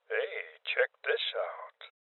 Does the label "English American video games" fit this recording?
No